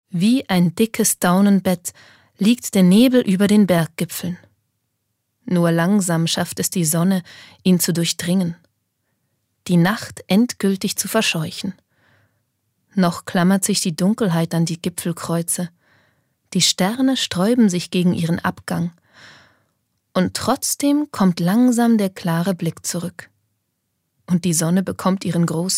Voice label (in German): Schauspiel Variabel